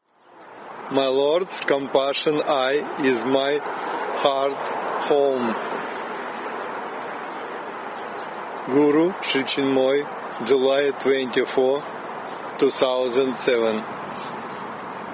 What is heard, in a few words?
Poem